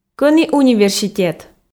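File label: "кӧні университет", Komi, female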